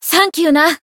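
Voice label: healed, Japanese; elga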